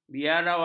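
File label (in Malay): "biarawan", Malay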